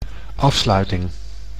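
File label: "afsluiting", Dutch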